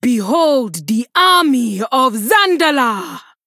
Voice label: Female Troll Play